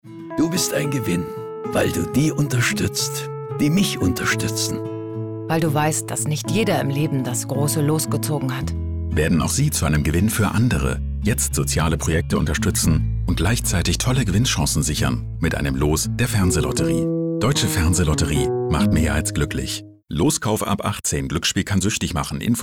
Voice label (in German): funkspot; dfl